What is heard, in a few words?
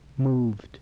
"moved", English